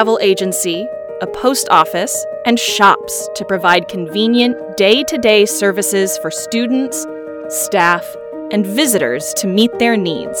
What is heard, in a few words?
English mall